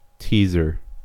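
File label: "teaser", US English; pronunciations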